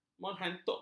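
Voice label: "menghantukkan", Malay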